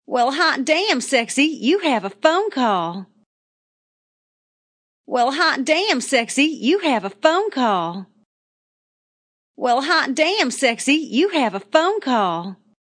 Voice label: Female Says Hot Damn Sexy Ringtone